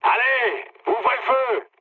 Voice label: attack position mood low, speech fr; War Thunder